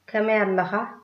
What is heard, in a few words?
how are you, tigrinya